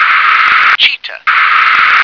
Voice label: "cheetah", English